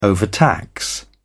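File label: "overtax", English, British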